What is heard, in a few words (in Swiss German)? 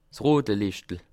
"rote", alsace